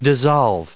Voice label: "dissolving", en us

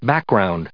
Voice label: "backgrounds", us

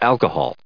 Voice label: "alcohol", us

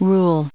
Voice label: "rules", us

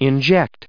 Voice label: "injecting", en us

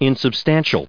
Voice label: "insubstantial", us

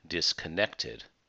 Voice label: "disconnected", en us

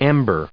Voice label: "ember", us

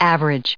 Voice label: "average", us

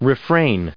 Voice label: "refrain", en us

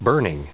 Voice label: "burning", en us

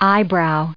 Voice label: "eyebrows", us

